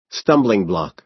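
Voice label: "stumbling block", American English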